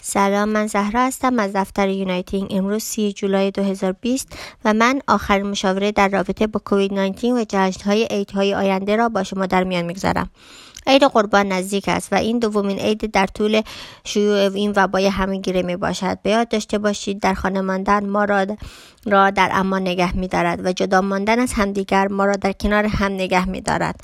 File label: Dari Persian COVID19 advice